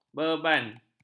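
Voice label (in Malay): "beban", Malay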